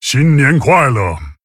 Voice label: Male Tauren HAPPY NEW YEAR; zh

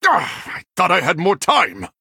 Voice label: Male Human Time; en

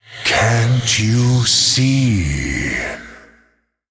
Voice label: Male Elemental Play

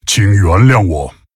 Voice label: Male Tauren Sorry, zh

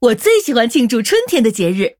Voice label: Female Human Picked, zh

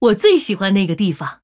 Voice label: Female Human MIRROR GREETINGS, zh

